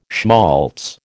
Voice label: "schmaltz", American